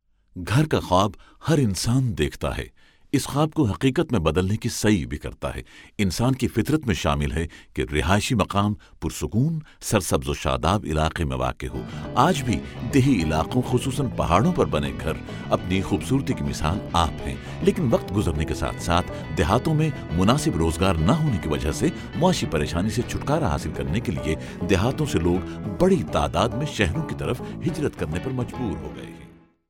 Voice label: Urdu

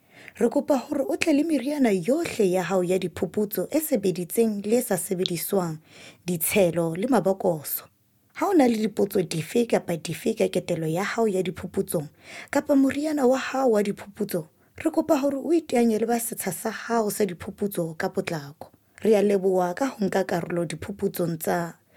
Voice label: SESOTHO

